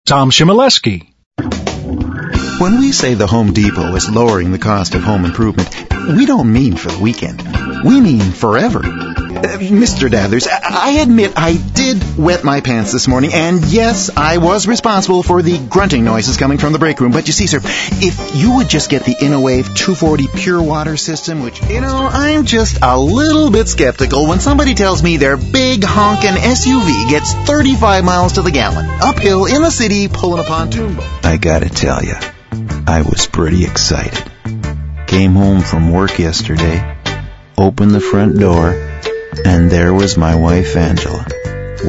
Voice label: male; Spotland Productions